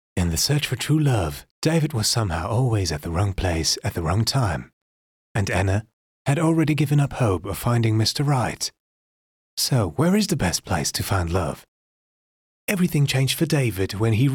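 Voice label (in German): British werbung, sprecher englisch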